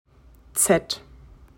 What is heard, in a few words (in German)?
buchstabe z, deutsches alphabet